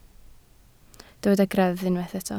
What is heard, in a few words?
"greddf", Welsh